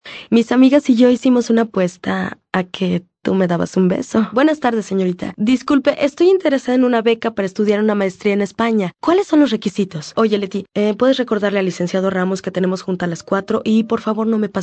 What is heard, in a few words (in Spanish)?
Spanish South America, F